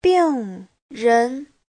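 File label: "bìngrén", Mandarin Chinese; Giáo trình Hán ngữ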